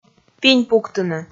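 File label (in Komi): "пинь пуктыны", Komi, male; audio dict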